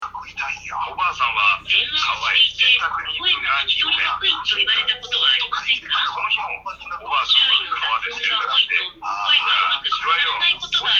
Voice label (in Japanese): デフォルト通話アプリ自分の声だけOFF; Find X9